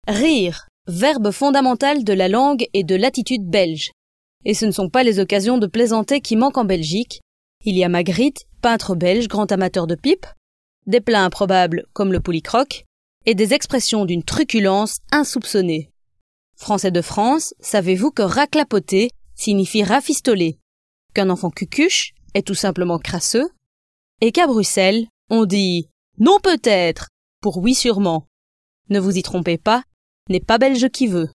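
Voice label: French, Corporate Belgium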